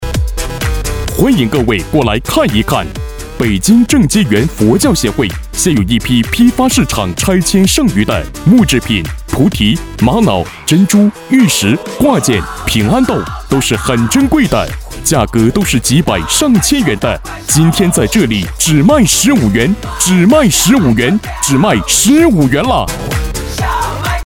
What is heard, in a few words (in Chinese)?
促销 街头 社会摇 浑厚激情